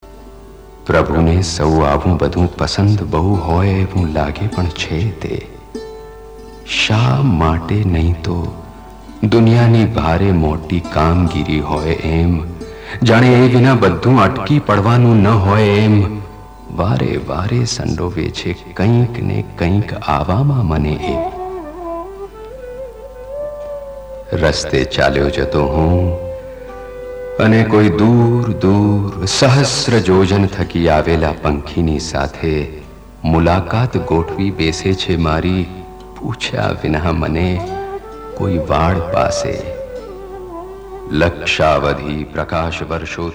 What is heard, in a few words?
Gujarati; foreign languages